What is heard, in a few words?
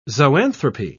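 "zoanthropy", British English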